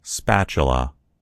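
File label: "spatula", American English; gcompris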